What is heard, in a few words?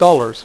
"dollars", dealer's speech; PowerPOKER Demo